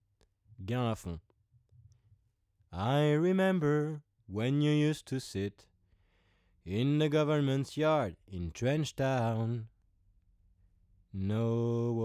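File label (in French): voix c414 preamp beaucoup de gain sans silk; rupert neve; portico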